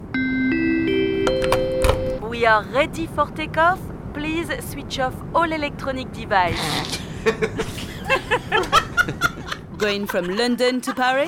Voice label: female belgian and french english with french accent radio promo